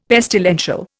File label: "pestilential", Indian; Dictionary